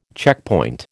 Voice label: "checkpoint", American